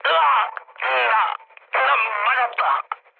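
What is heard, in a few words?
Korean damaged pilot mood low, speech; War Thunder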